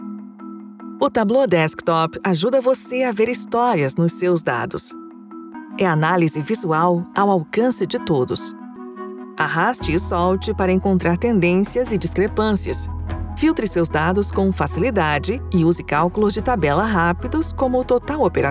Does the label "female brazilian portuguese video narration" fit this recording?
Yes